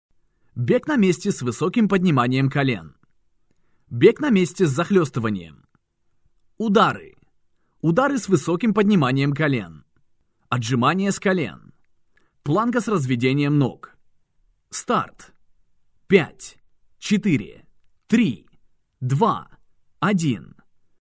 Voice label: russian voiceover locutor ruso

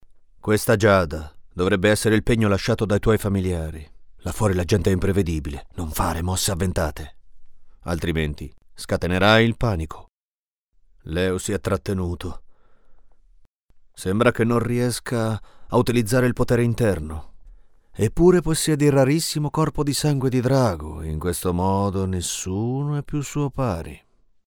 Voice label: locutor italiano, Italian voiceover; Locutor doblaje Italia; locutor de doblaje; Italian dubbing. Italian voice actor

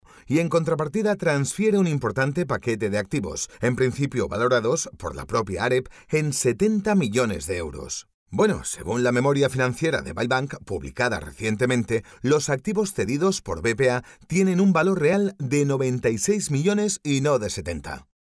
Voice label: locutor spanish voiceover

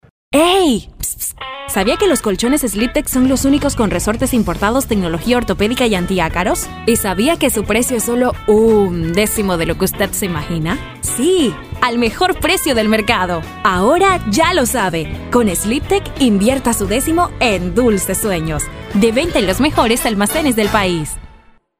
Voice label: locutora panama panamanian voiceover; locutora panameña

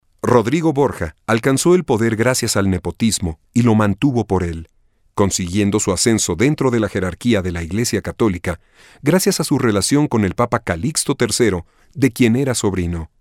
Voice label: locutor mexicano mexican voiceover

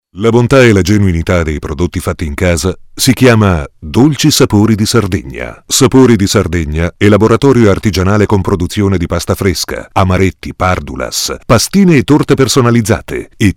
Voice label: Italian voiceover, locutor italiano

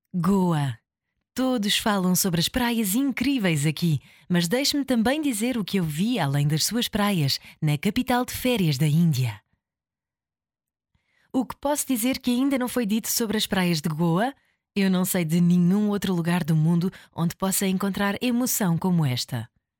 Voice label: locutora portuguesa portuguese voiceover

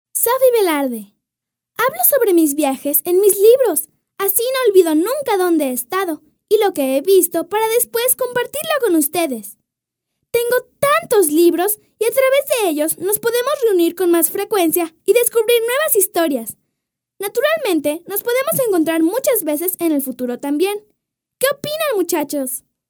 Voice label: locutora nina mexican child voiceover; voz infantil de Mexico

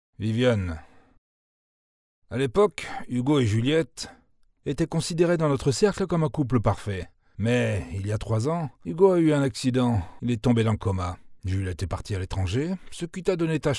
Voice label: locutor frances french voice artist

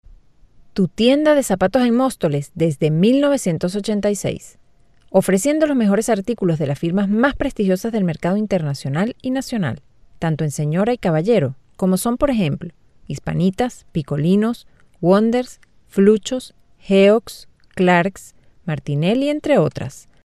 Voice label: locutora venezuela venezuelan voiceover; locutora venezolana

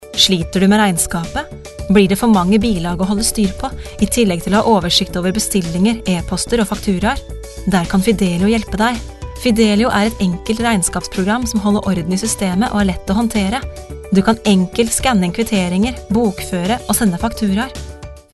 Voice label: locutora noruega norwegian voiceover

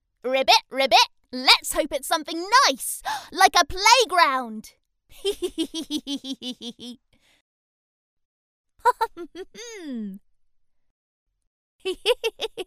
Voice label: english voiceover cartoon voices locutora inglesa

